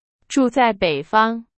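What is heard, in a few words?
Chinese, example sentence; hsk2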